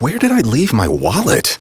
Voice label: Citizen Male, en; Citizens